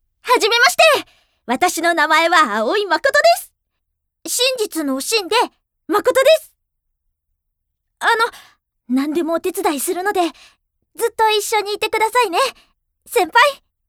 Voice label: 活発 転校生

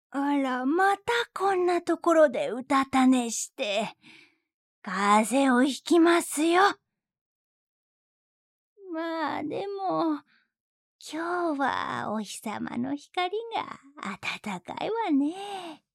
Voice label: 70代 女性

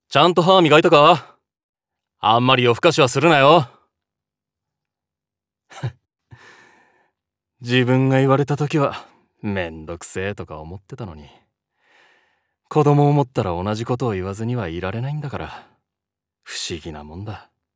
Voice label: 30代

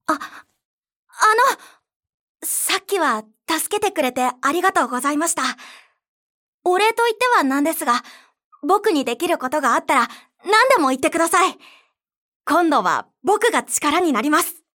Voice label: 少年

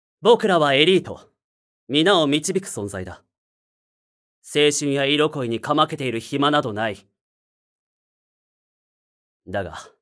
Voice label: 理系男子; 男性

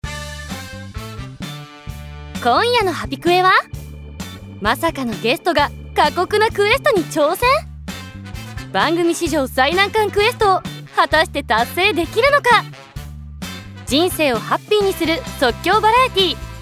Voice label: ナレーション

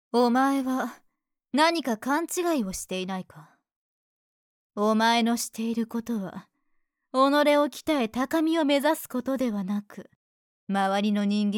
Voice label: ボイスサンプル（S系女子）

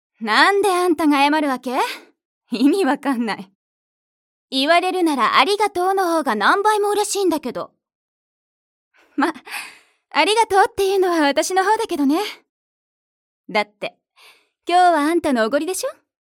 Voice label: お姉さん

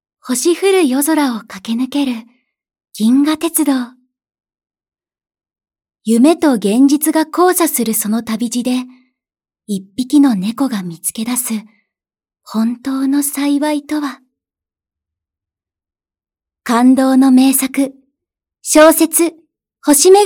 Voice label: NA 落ち着き

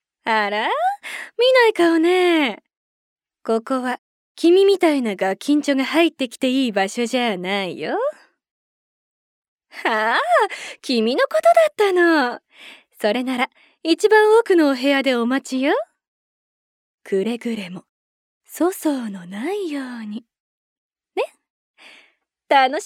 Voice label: 艶やかなお姉さん

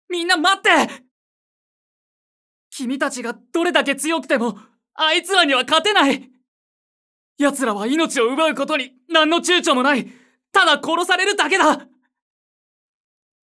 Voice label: 大人しめな青年

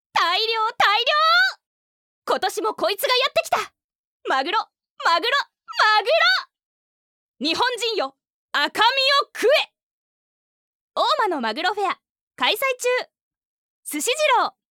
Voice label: CMナレ

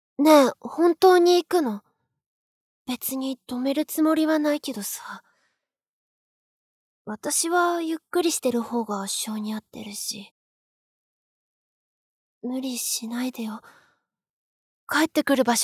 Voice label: おとなしい 女の子

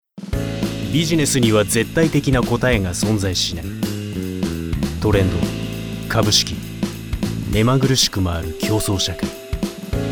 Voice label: ナレーション

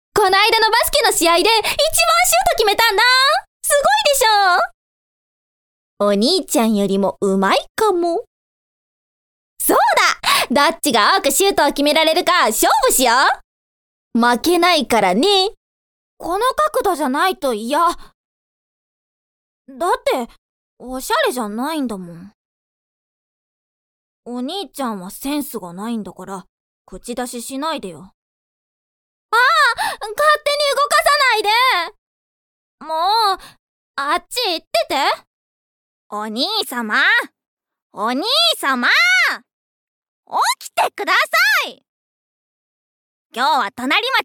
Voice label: 元気な妹、わがままな妹、強気な妹、劣等感を抱く妹、甘えたな妹

